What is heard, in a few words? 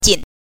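"jin", Mandarin Chinese pinyin, neutral tone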